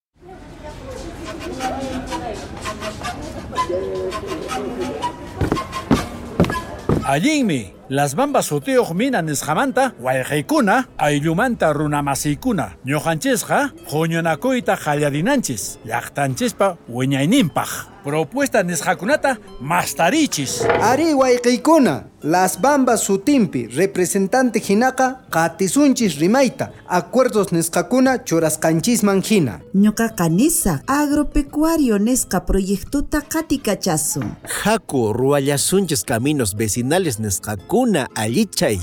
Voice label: RADIO DRAMA QUECHUA